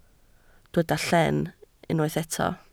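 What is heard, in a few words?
"llen" Welsh, spoken word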